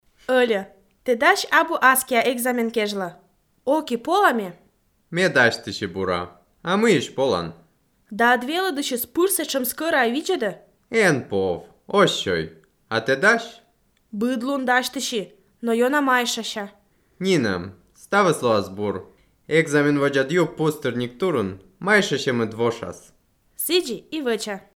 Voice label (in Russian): dialog